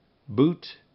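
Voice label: "boot", English; RhymeTest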